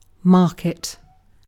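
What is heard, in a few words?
"market", British English; pronunciations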